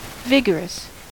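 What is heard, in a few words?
"vigorous", American English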